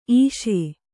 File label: "ಇಸಿ", kannada